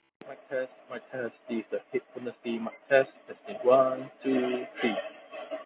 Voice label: Noisy; AUKEY EP N5